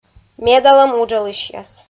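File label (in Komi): "медалӧм уджалысьяс", Komi, female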